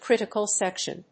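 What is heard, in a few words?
"Critical section", English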